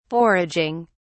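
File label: "foraging", en, uk British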